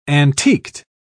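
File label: "antiqued", British English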